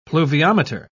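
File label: "pluviometer", British English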